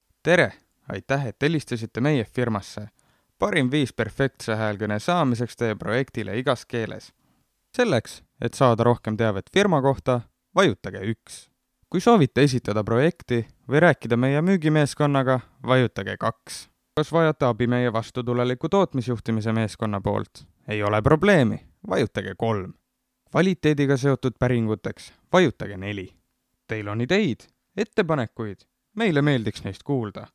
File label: Estonian; Estonian, Male, Studio, 20s-30s. Based outside UK.